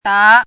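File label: "dá", Mandarin Chinese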